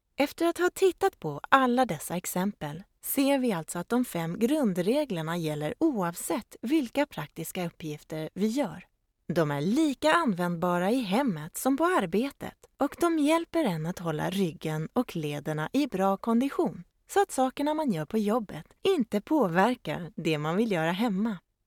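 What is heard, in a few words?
Swedish, female, e-learning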